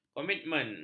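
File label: "komitmen", Malay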